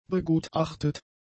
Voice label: "begutachtet", German; adjectives